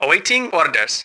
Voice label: await, ru